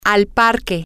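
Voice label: Spanish, female; to The Park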